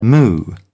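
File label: "moo", British English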